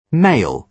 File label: male